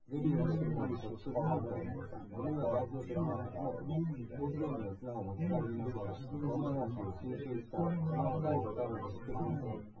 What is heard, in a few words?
male synthconv